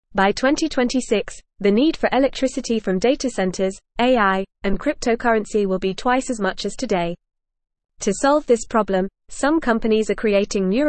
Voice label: English Lower Intermediate FAST Reading; Brain like Computers Faster Energy saving Future fo

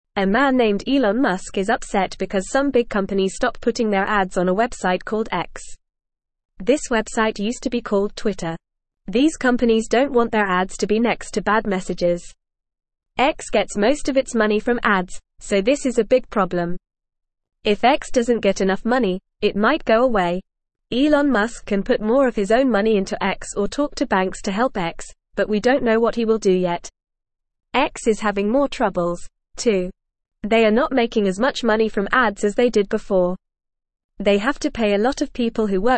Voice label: English Newsroom Beginner FAST Reading; Elon Musks Website X is in Trouble

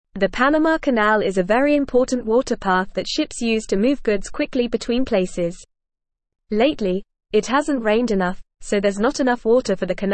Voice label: English Lower Intermediate FAST Reading; Panama Canal Needs More Water for Ships

